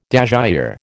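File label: "dasyure", American English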